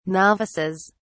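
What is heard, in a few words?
"novices", British English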